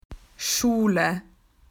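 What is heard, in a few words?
"school", Australian English; PictureDictionary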